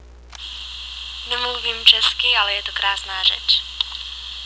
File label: Czech